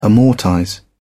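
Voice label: "amortize", English, British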